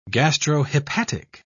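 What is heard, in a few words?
"gastrohepatic", British English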